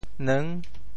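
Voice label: "neung6", Teochew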